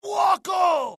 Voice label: alert, dialogue IT; mohaa; attack